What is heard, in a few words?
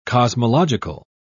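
"cosmological", British English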